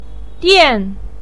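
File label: "dian", Mandarin pinyin, tone 4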